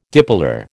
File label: "dipolar", American